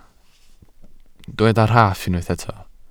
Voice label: "rhaff", Welsh